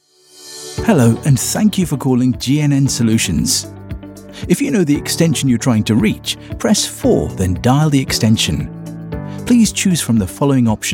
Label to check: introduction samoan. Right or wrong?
Wrong